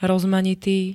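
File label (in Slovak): "rozmanitý", Slovenské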